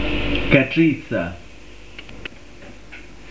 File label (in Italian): "catrizza", pronuncia, dizionario carigliaro